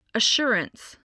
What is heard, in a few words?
"assurance", english